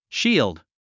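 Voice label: "shield", English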